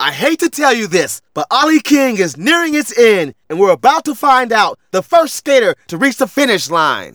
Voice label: Narration